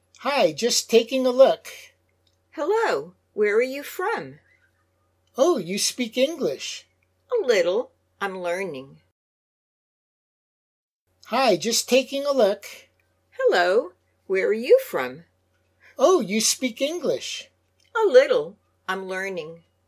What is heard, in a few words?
Natural Dialog; Lesson 1